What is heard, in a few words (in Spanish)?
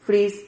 "friz", Silabas